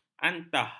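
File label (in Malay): "antah", Malay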